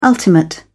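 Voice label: "ultimate", British English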